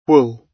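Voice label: "wool", British English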